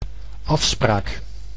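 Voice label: "afspraak", Dutch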